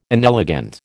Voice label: "inelegant", American

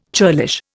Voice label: "churlish", Indian

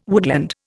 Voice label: "woodland", Indian

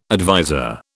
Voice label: "adviser", British

